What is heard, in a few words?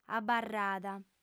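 "abarrada", lingua sarda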